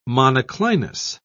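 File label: "monoclinous", English, British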